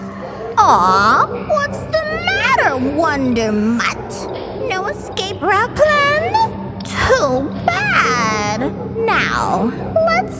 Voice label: Animation